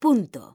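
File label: "punto", Spanish, mujer; mekanta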